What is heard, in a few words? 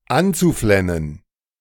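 "anzuflennen", German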